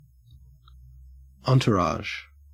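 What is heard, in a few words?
"entourage", Australian English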